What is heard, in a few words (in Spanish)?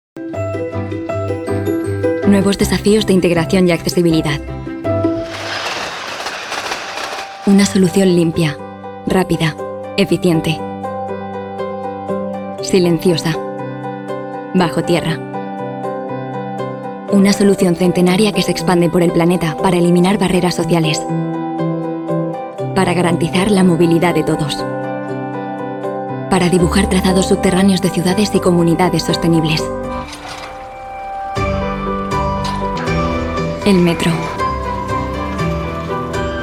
Corporativo; Acciona